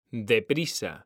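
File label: "DEPRISA", Spanish; mekanta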